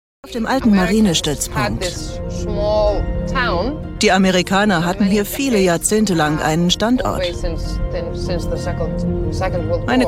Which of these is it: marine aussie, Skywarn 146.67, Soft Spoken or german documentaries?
german documentaries